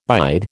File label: "bide", American